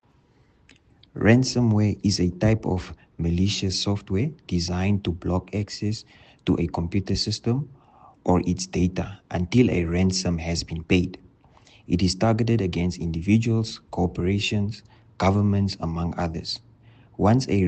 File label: Voice Note Ransomware Awareness; LockBit 3.0